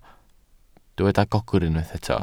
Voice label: "gogr", Welsh